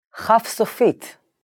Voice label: Chaf sofeet, Hebrew; hebrew introduction